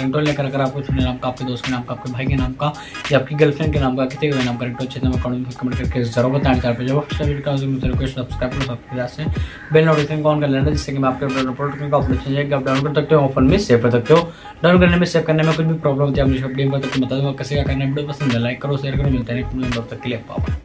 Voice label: mr sohel please pickup the phone i love you ringtone nepali ringtone